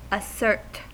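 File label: "assert", American English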